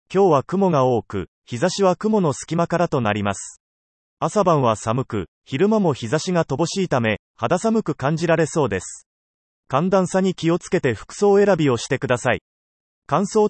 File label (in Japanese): 自動音声サンプル